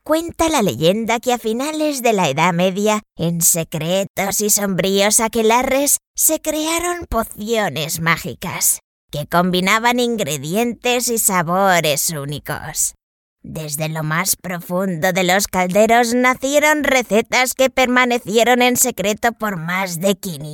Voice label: 西班牙语女 角色模仿

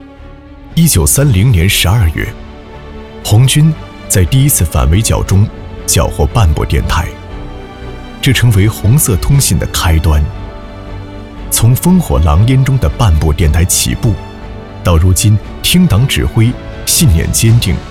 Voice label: 男,专题,年轻磁性; 杭州电信

